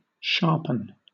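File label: "sharpen", British English; pronunciations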